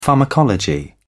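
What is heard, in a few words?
"pharmacology", English, British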